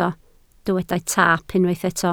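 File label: "taap" Welsh, spoken word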